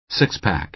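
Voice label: "six-pack", American English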